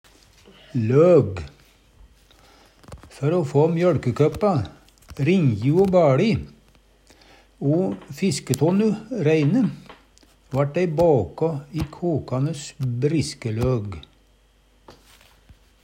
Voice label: log, Numedalsmål; Døme: einerlog, humlelog,....

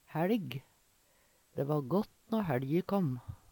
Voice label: "hæLg", Numedalsmål; Dæ va gått når hæLje kåm.

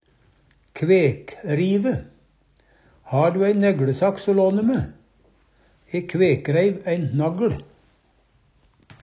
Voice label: kvekrive, Numedalsmål; Har du ei negLesaks o låne me? E kvekreiv ein nagL.

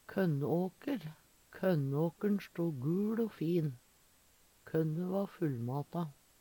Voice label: konnaker, Numedalsmål; Substantiv hankjønn

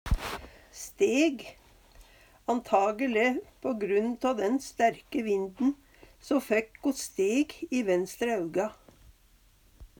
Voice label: steg, Numedalsmål

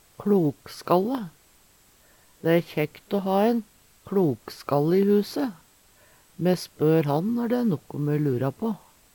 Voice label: kLokskalle, Numedalsmål

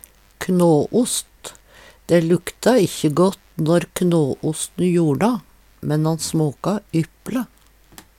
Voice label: knåost, Numedalsmål

